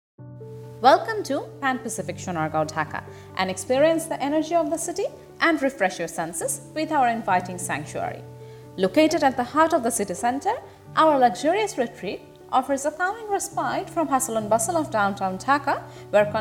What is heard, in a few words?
Voice Over